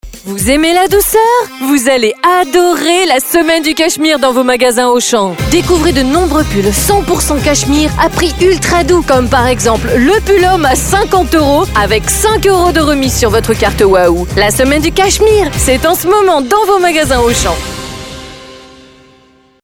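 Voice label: French female advert; FR F PUB; Français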